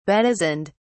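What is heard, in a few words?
"bedizened", English, British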